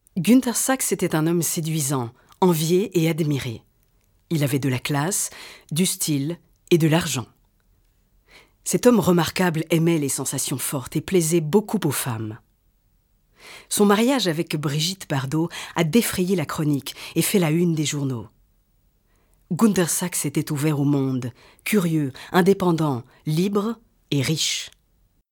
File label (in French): voix nue, voix off